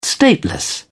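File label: "stateless", British English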